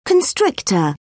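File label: "constrictor", English, British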